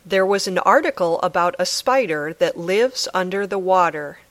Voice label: Dictation